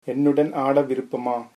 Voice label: dance, tamil; என்னுடன் ஆட விருப்பமா?; ennudan aada viruppamaa?